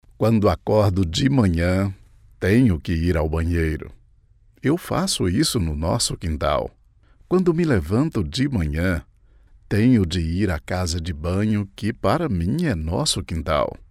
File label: portuguese brazilian audiobooks